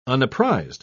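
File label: "unapprised", British English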